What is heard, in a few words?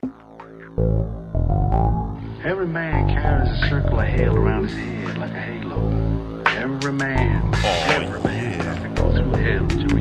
vocal spot